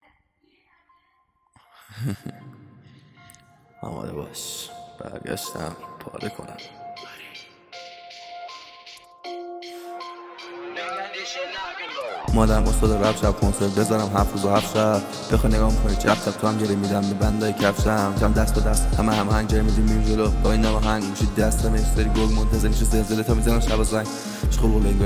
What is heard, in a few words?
rap